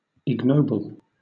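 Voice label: "ignoble", British English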